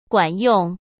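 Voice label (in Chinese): "管用", Mandarin; chinese-flashcards; hsk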